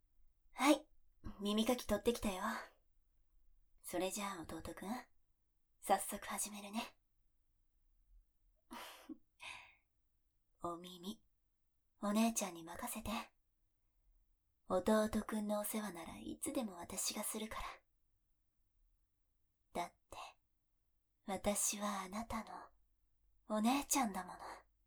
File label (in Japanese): 耳かき 効果音なし; お姉ちゃんに耳かきさせてね; 甘々でクーデレなお姉ちゃんといっしょ